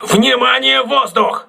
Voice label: voice message air alert, crew ships russian